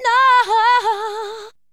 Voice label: NOHOHO, VOICES

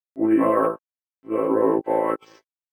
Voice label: VOICEFX ELCTR 140; Techno2

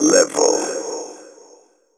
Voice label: techno male 140; Vocals

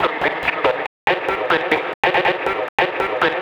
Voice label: TECHNO VOCALS 140; Techno2

